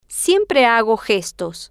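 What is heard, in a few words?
al hombre le gustan las gangas grandes, Spanish, female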